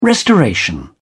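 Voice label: "restoration", English, British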